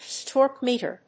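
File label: "Torque Meter", English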